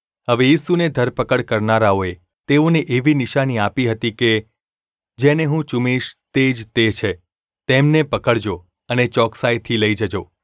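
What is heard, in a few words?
gu ulb mrk, Gujarati; mrk 14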